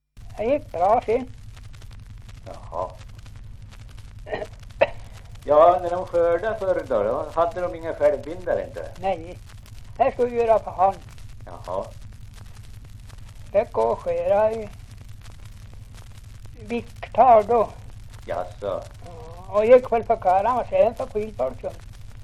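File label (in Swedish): Ovansjö Gästrikland, dialekter; Folkminnen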